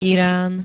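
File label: "Irán", Slovak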